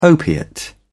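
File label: "opiate", British English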